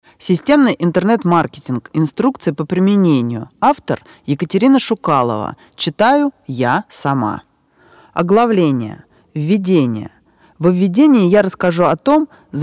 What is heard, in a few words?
marketing audiobook